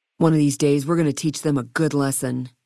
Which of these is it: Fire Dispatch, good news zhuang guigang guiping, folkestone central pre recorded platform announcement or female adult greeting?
female adult greeting